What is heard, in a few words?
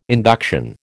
"induction", American